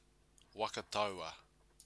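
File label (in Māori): "waka taua", Māori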